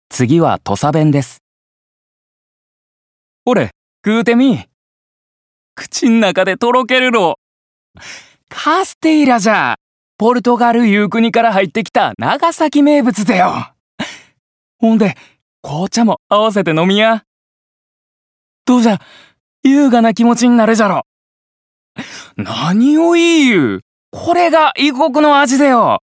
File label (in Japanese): 方言